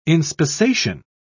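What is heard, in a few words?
"inspissation", British English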